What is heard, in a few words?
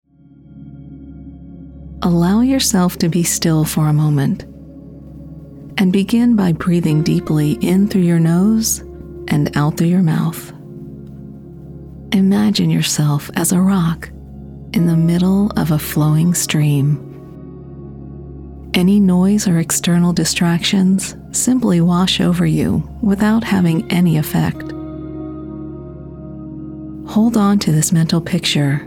Meditation